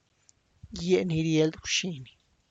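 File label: night blooming flower, Tlacochahuaya Zapotec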